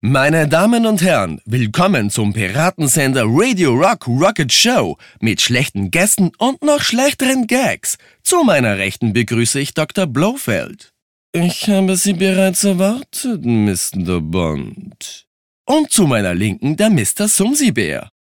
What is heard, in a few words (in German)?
Stimmenvariationen